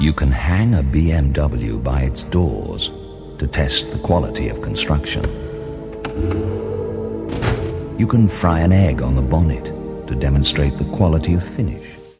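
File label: English UK male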